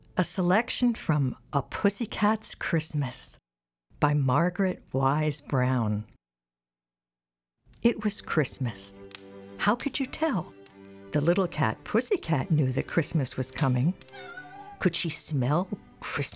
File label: audiobook; pussycat xmas